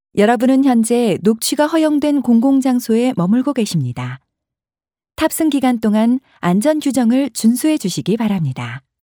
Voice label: Korean commercial